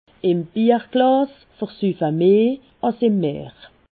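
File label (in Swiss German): "meer", Haut-Rhin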